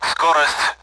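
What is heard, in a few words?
Speech Sound Russian Common AWACS; speed; DCS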